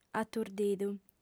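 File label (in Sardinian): "aturdidu", female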